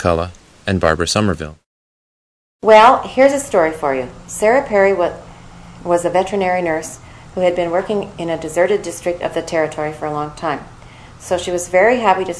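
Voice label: ontario, International Dialects of English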